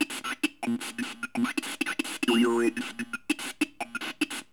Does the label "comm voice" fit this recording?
Yes